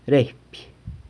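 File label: "reipy", tells, San Lucas Quiaviní Zapotec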